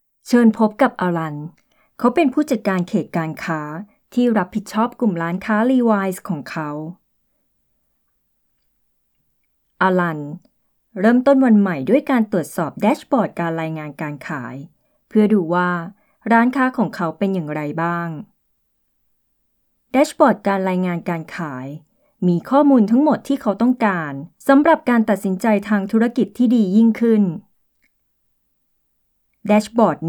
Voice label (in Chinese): 泰语女 干音